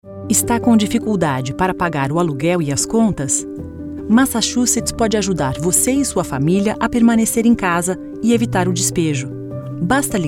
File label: Portuguese, senior maduro; 60Sec; Clube da Voz